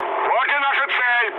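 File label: near waypoint, mood med, Russian; War Thunder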